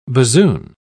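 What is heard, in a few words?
"bassoon", English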